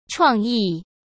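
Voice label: "创意", Mandarin Chinese; hsk; chinese flashcards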